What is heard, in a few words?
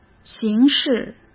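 "形势" Mandarin Chinese; chinese flashcards; hsk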